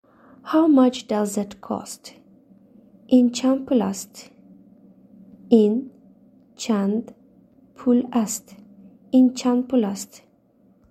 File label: How much does it cost, tajik